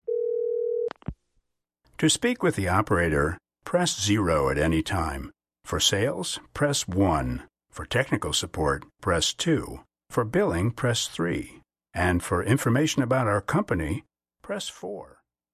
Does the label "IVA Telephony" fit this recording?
Yes